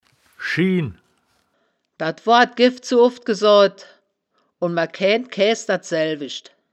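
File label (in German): "schien", wittlicher woerterbuch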